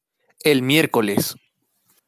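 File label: "el miércoles", Spanish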